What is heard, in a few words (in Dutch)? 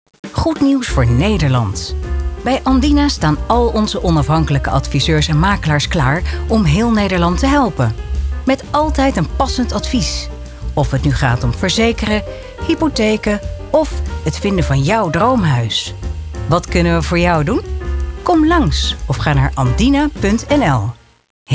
Commercial; Andina